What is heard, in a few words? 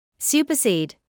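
"supersede", British English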